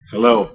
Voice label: "hello", voice; introductions